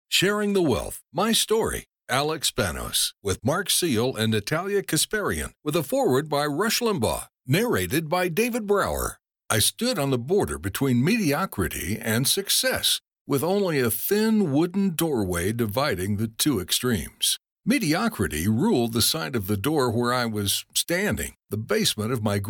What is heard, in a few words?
english american audiobooks